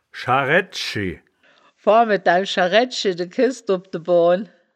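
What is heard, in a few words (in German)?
"scharraedd-schi", wittlicher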